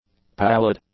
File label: "pallid", English; adjectives